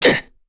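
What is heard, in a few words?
Vox Landing, Korean; Dakaris; kingsraid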